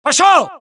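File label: suppress, dialogue, Allies; mohaa; Mission 3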